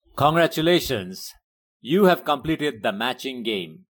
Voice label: "play", English